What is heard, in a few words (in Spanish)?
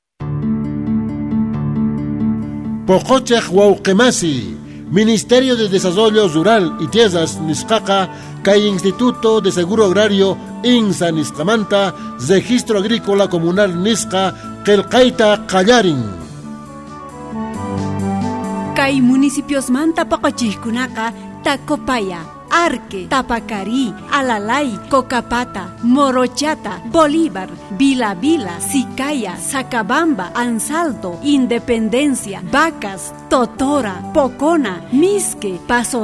QUECHUA COCHABAMBA